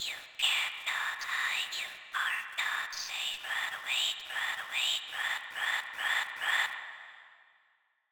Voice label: Computer horror AI speak